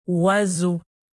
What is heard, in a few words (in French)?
"oiseau", French native; alphabet fr